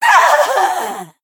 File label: Female Troll Death